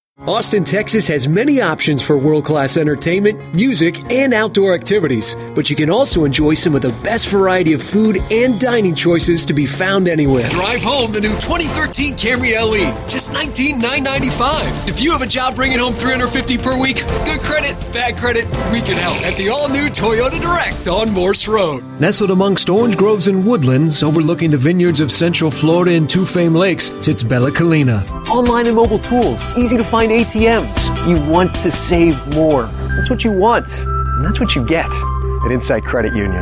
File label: Voiceover